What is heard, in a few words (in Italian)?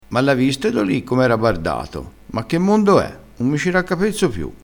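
"bardato", dialettale crespinese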